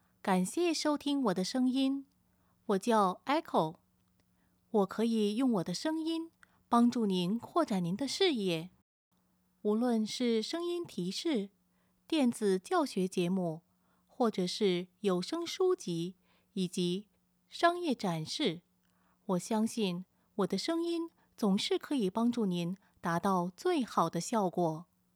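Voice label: Mandarin Voice; Foreign Languages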